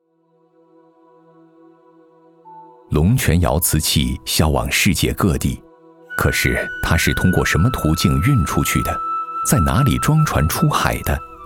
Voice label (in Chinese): 男 纪录片; 海上丝绸